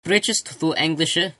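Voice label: do you speak, Old English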